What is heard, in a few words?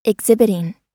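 "exhibiting", US English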